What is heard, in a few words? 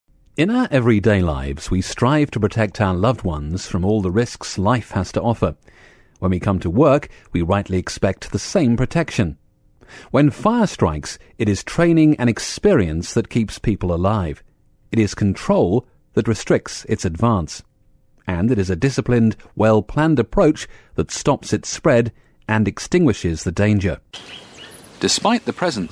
corporate